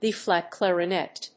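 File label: "B-flat clarinet", English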